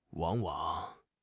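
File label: "wang3 wang3", Mandarin Chinese; HSK4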